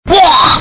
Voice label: bleh bass boosted; rblx